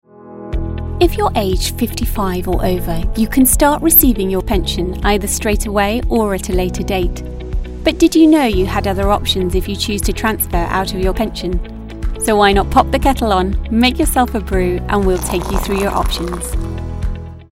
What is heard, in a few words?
English RP Accent